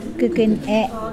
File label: toenail, Mokilese